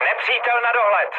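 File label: enemy detected, Czech, mood med; War Thunder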